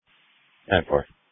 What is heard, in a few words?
Police Tactical Encrypted